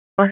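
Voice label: Dispatch 1, P25; WSO DISP 1; wake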